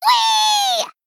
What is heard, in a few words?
Female Gnome Attack